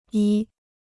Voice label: "一", Chinese